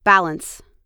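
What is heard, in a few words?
"balance", US English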